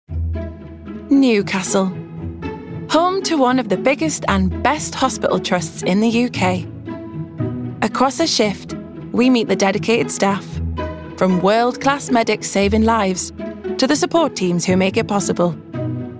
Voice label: documentary